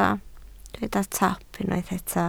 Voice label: "top", welsh

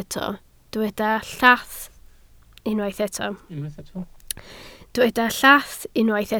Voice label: "llath", Welsh

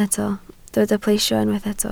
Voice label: "plesio", Welsh

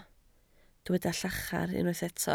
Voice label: "llachar", Welsh

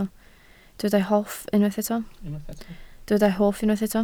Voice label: "hoff", Welsh

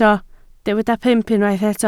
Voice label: "pump", Welsh

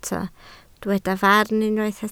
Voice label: "farn", Welsh

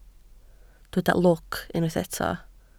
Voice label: "lwc", Welsh

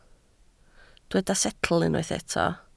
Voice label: "setl", Welsh

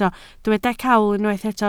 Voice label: "cawl", Welsh

